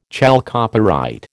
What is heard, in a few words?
"chalcopyrite", American